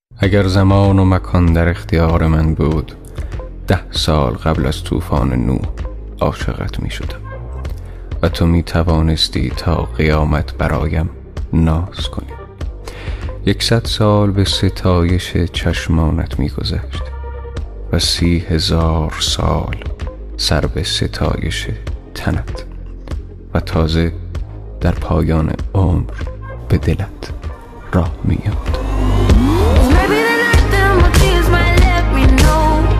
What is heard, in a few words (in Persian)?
دکلمه; اگر زمان و مکان